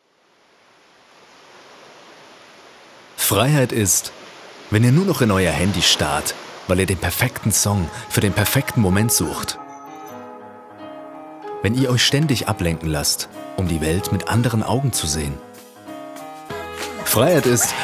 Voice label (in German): O2 Werbung